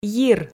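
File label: "йир", Komi, female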